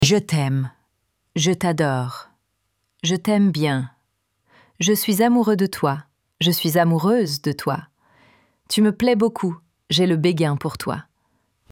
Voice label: my love in french

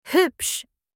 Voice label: beautiful in german

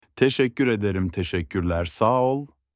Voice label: thanks in turkish

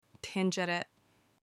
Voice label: pot in turkish

